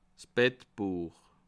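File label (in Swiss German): "bettbuech", Bas Rhin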